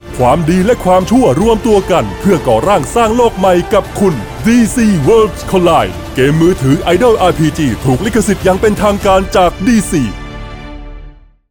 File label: Commercials Male Trailer Deep Powerful